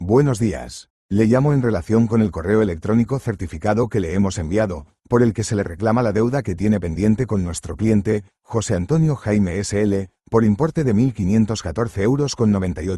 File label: audio call